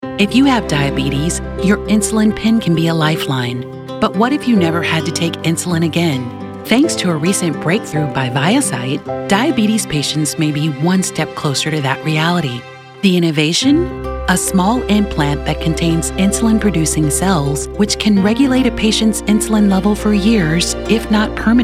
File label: narration